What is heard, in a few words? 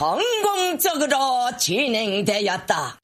Korean Lady